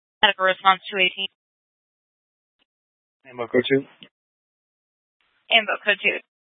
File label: Fire Departments Mesa Simulcast; TOPAZ